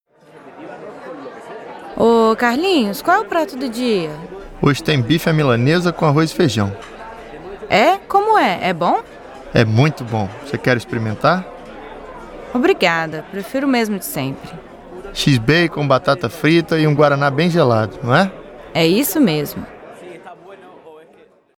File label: dialog; linguadagente